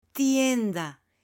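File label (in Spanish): "TIENDA", Spanish, female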